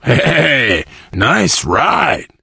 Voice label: initial meeting, survivor voice biker

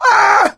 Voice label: incapacitated injury, voice; Left 4 Dead 2; coach

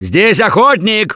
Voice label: Warn Hunter, russian; Gambler

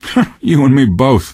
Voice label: answer, male; Garry's Mod; hl2

